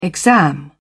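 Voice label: "exam", British English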